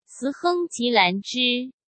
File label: sent zh-CN